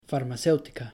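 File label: "farmacéutica", Spanish; pronouncing c g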